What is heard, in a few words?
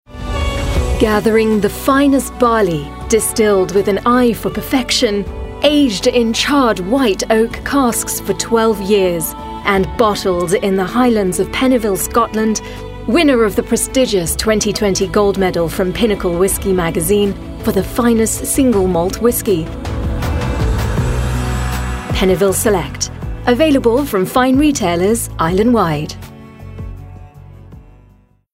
UK English Whisky; Pennyville